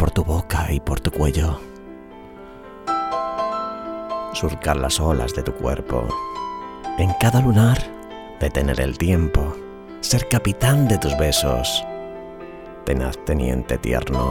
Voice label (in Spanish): marinero poema romantico